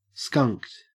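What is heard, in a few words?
"skunked" English, Australian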